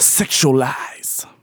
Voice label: Phrase Male Rap; Vengeance Samples